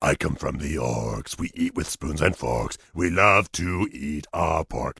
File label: Orc Male Pissed